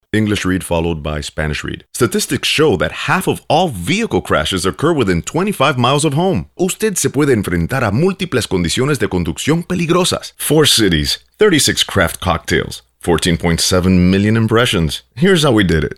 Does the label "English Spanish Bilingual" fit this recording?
Yes